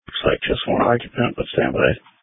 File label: Police Tactical Encrypted